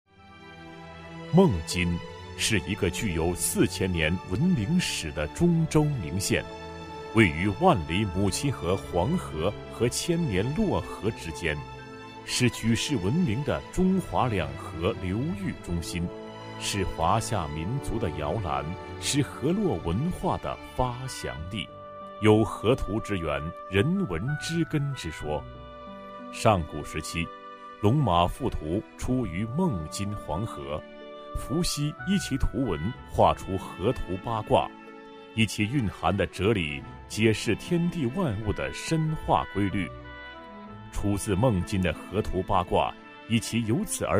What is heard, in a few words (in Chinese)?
男 专题 城市宣传, 国语男声配音; 孟津